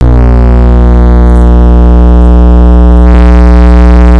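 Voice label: "pencil", spoken word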